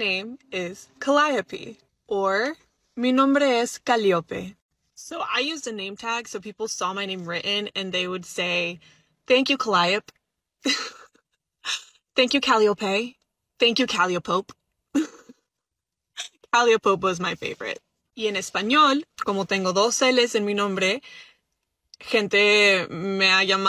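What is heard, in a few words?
bilingual mispronunciation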